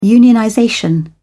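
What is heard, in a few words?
"unionization", British English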